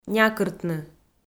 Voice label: "някыртны", Komi

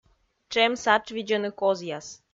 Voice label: "тшем сатшвидзӧны козъяс", Komi

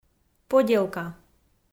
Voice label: "поделка", Komi

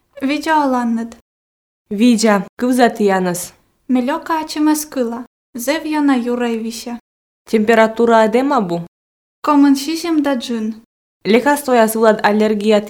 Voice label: dialog apteka, Komi

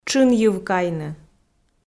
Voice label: "тшынйыв кайны", Komi

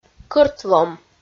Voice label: "кӧртвом" Komi

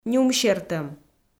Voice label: "нюмсертӧм", Komi

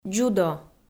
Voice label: "дзюдо", Komi

